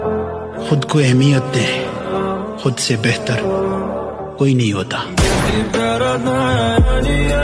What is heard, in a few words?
Attitude Shayari Ringtone